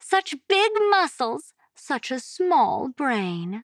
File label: Taunt Directed; Princess; Buck; Paladins